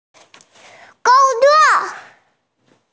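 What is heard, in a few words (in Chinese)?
"gong1zuo4", Chinese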